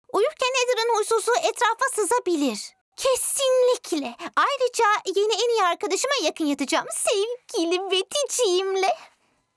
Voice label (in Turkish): cocuk ornek